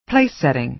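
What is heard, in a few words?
"place setting", American English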